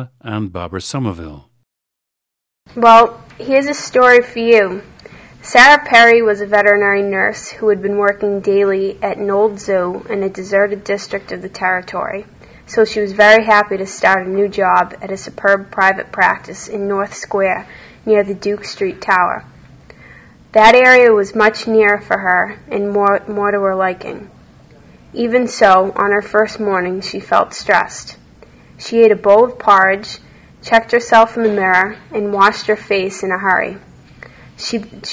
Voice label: massachusetts, dialects

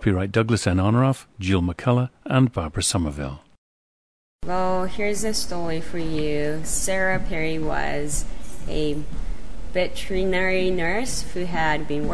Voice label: japan

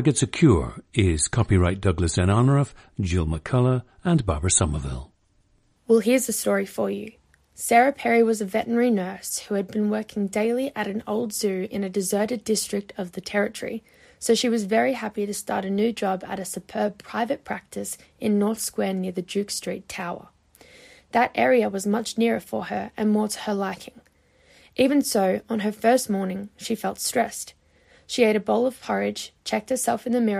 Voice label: australia

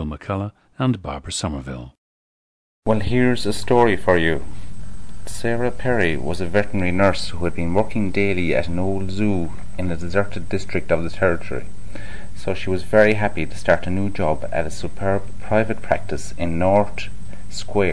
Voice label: ireland, dialects

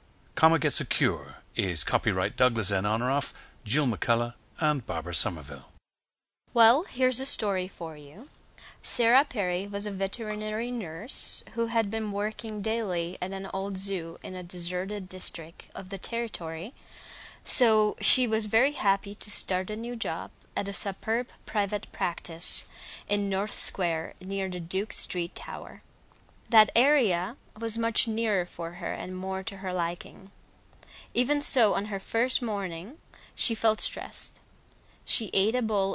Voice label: czech

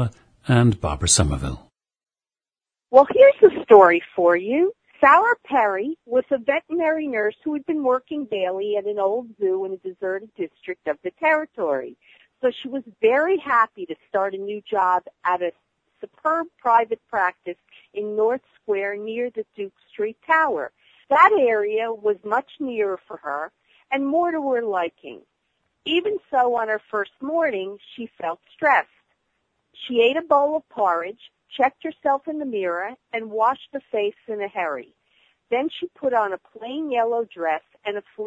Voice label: new jersey, dialects

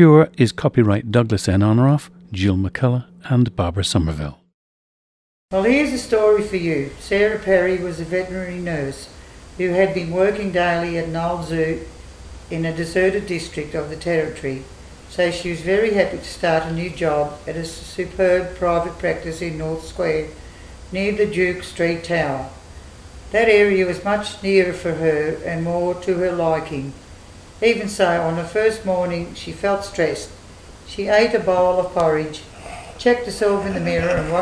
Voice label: australia

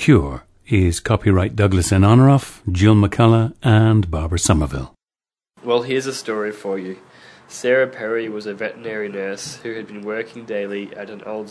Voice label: australia

